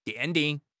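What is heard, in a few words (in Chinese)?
"奠定", Chinese; HSK6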